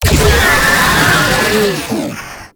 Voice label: Pain; Warframe; Proto Infested; Babau